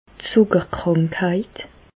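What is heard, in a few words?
"zuckerkrankheit", Alsatian, Bas Rhin, diabète; medecine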